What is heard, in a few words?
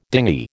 "dingy", American